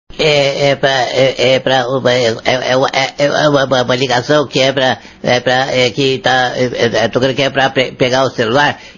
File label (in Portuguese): atende o gaguinho; toques para celular